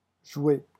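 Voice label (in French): "jouer", French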